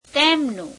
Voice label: gujarati their; તેમનું [teemeneum]

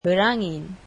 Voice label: malay windy

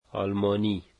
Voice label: "German", dari

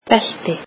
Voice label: "belt", Icelandic

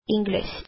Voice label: "English", filipino

